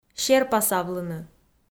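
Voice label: "серпасавлыны", Komi, female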